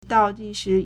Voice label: "dao3 ji4 shi2", Chinese

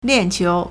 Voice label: "lian4qiu3", Chinese; 链球 (鏈球) liànqiú; hammer throw; Sport

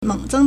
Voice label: "meng3zeng1", Chinese

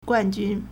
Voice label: "guan4jun1", Chinese

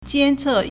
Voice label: "jian1 ce4", Chinese

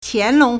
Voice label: "qian2 long2", Chinese

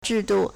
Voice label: "zhi4 du4", Chinese

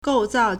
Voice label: "gou4zao4", Chinese

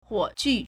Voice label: "huo3 ju4", Chinese

